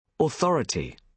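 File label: "authority", British English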